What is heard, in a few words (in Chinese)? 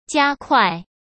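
"加快", Mandarin Chinese; hsk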